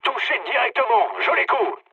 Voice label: bomb success mood med, speech fr; War Thunder